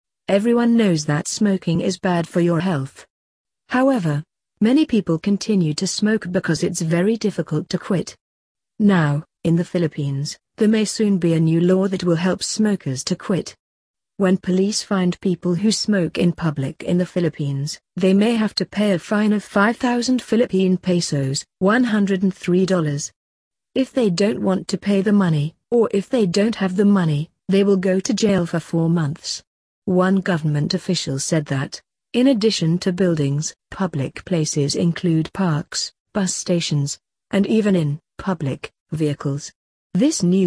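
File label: British; smoking jail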